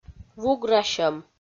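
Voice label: "вуграсьӧм", Komi, female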